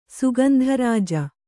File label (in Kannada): "ಸುಗಂಧರಾಜ", Kannada